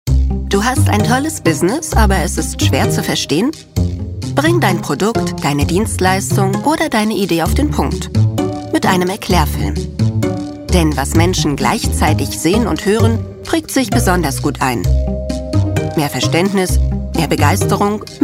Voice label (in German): erklaerfilm